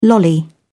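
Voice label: "lolly", British English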